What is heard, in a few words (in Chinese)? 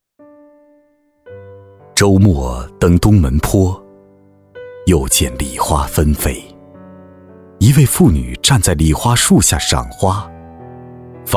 男, 朗读, 情感; 又见李花